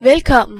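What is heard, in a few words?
welcome, Brazilian Portuguese; gcompris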